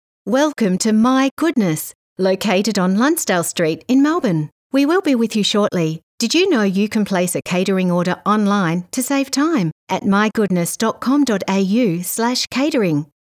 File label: Phone message